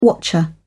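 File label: "watcher", British English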